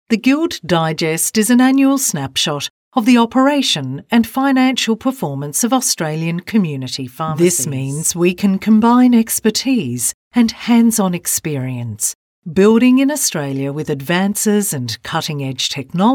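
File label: Narration, voice-overs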